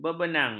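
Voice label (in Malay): "bebenang", Malay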